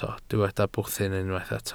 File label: "bwthyn", Welsh